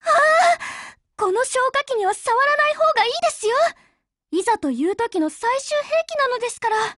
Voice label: CAR DIALOGUE JP

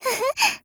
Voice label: MOOD JP

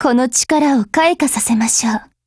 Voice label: JP

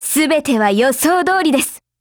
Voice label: SKILL JP; Carcano1891

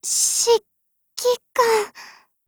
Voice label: DIALOGUE JP

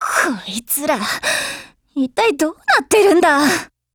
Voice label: RETREAT JP

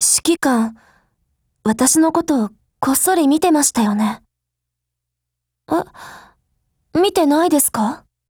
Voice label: DIALOGUE, JP

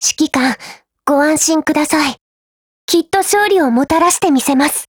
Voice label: GO ATTACK JP

